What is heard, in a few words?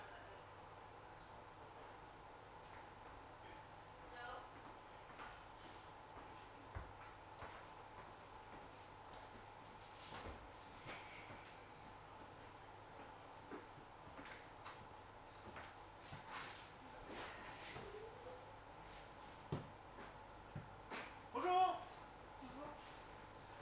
Harass French